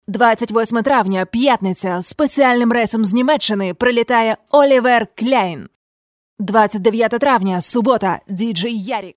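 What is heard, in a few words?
Ukrainian, female, commercial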